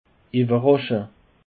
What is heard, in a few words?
"ewerrasche", alsace